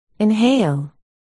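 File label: "inhale", spoken word, articulation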